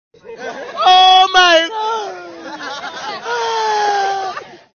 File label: oh my God Comedy sound effect